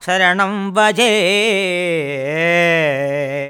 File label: MAN VX, Indian Traditions